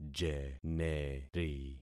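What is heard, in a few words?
"jeneri", concatenated syllables; Affixing experiment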